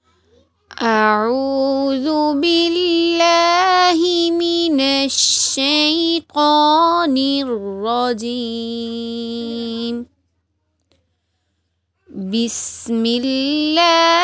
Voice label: Recitation of sura Fatiha